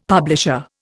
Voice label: "publisher", Indian; Dictionary